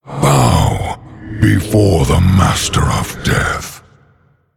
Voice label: Male Human Generic Start

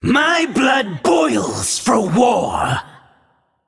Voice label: Male Naga Play

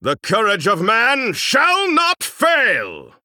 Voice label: Male Human Play

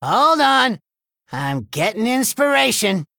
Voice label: Male Gnome Thinking

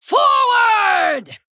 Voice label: Female Worgen Attack

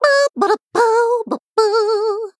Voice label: Female Human Greetings; Bacon Shop

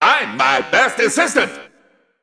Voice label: Mech

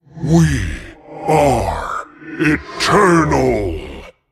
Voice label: Male Demon; Story Hero MalGanis; Story Uther Mission7 Hero Power

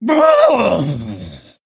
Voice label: Male Kobold Death

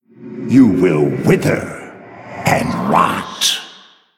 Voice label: Male Night Elf Threaten; MalfurionDeathknight

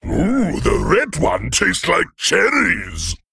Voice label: Male Worgen

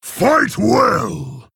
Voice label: Male Orc Mirror Greetings

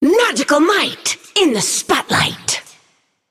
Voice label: Female Naga Play

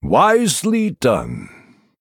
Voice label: Male Night Elf Well Played

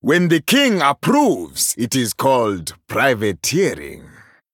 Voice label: Male Troll PIRATE DAY

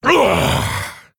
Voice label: Male Orc Death

